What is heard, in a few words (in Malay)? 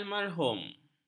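"almarhum", Malay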